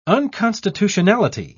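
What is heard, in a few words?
"unconstitutionality", British English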